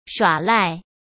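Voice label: "耍赖", Mandarin Chinese; chinese-flashcards; hsk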